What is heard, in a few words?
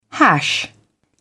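"hash", British English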